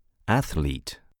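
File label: "athlete", English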